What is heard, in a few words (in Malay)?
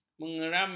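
"mengerami", Malay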